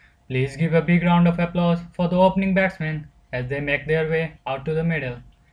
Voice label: english line